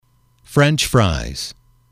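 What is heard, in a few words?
"french fries", English, male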